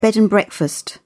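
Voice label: "bed and breakfast", British English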